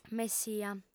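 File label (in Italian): "messia", lingua sarda, fem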